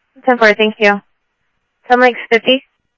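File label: Sheriff East County, scanner audio; MCSO - EAST; ThompsonPeakScot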